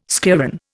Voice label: "schlieren", Indian

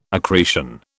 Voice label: "accretion", British

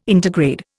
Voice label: "intergrade", Indian

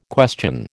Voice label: "question", American

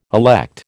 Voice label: "elect", American

